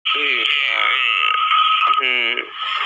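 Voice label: "pencil", Mandarin; qian1bi3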